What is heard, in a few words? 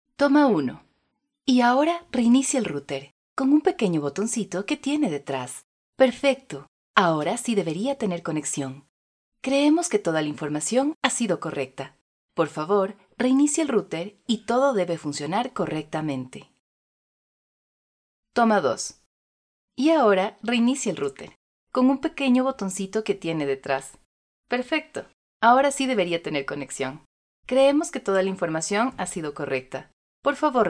locutora ecuador ecuadorian voiceover; locutora ecuatoriana